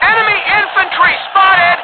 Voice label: Infantry spotted, English; BF1942